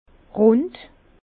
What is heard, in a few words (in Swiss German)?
"rund", Bas Rhin; vin; plein